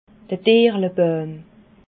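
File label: "tierlebàuim", Alsatian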